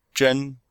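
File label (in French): "zhen", Chinese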